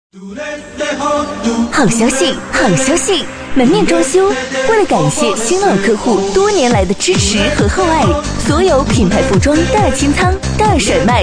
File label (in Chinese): 女 促销 门面装修, 促销女配